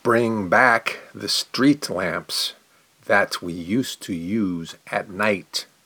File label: Consonants pronounce slow